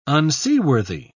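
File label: "unseaworthy", British English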